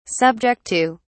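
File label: "subject to", British English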